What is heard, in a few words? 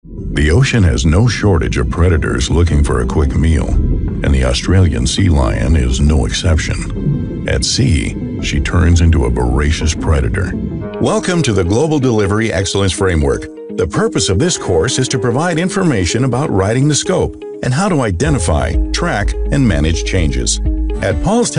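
English, male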